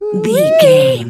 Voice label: voice fun character cute cartoon